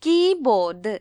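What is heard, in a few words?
"keyboard", Malayalam; gcompris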